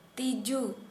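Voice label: Santali female worm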